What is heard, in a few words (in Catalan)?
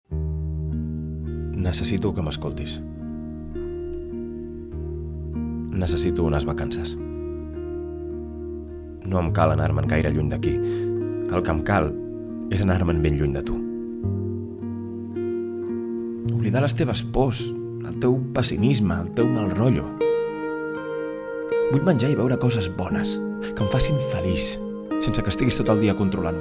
TV Spot, Catalan